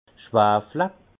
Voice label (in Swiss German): "schwafle", alsace; vin; soufrer